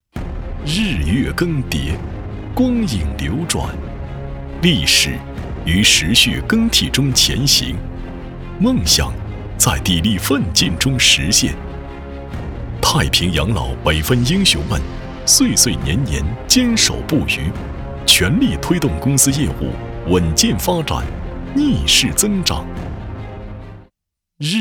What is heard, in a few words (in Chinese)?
男 企业宣传片; 太平养老